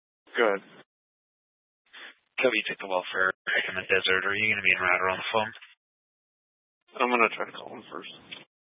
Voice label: Sheriff Dispatch; Mesa County